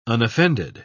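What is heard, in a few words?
"unoffended", British English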